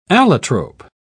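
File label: "allotrope", British English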